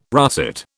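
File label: "russet", British English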